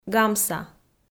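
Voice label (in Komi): "гамса", Komi, female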